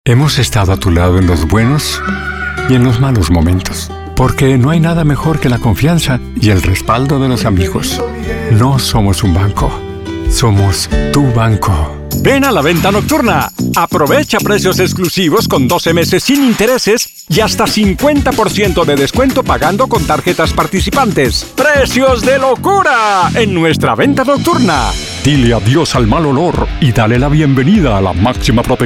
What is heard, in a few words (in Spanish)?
spanish commercial